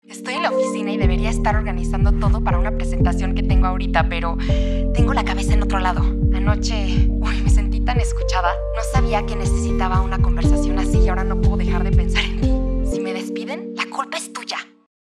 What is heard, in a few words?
spanish latin american radio imaging